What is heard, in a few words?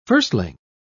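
"firstling", British English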